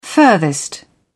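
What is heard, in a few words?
"furthest", British English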